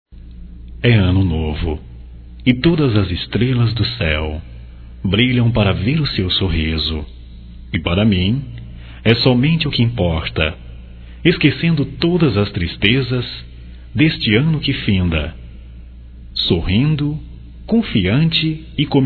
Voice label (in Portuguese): Natal geral, masc; Telemensagens 2017; Natal & Ano Novo